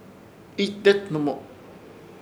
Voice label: "Ichdethnɨmo", Ishir Chamacoco; arrodilarse

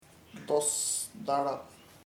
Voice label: sadly, chamacoco; tristemente

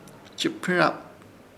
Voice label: "Chɨpɨrap", Ishir Chamacoco; pajarito

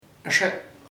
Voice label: they, chamacoco; ellos(as)

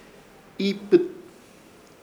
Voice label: "Iipɨt", Ishir Chamacoco; cruzar

